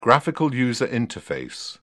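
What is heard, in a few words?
"graphical user interface", British English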